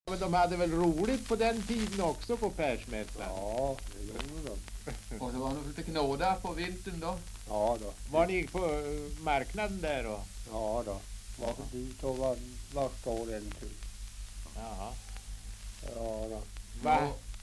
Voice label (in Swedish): dialekter; Folkminnen